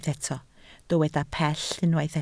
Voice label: "pell", Welsh

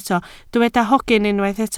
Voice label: "hogyn", Welsh

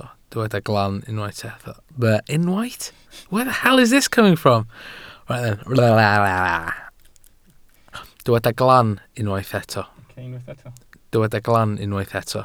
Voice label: "glan", Welsh

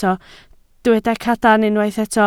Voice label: "cadarn", Welsh

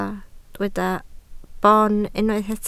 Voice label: "boon", Welsh

